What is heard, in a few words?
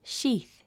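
"sheath", English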